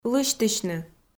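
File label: "лысьтысьны" Komi, female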